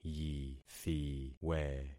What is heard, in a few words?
"yithiwe", concat syllables; Affixing experiment